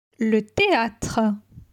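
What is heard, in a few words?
"Le theatre", French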